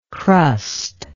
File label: "crustless", english